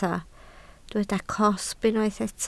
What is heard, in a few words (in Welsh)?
"cosb", Welsh